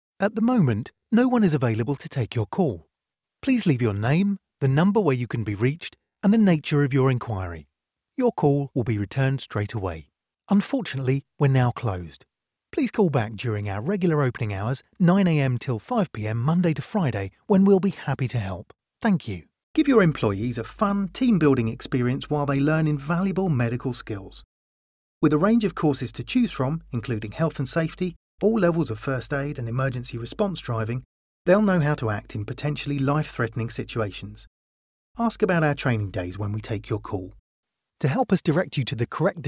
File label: IVR Telephony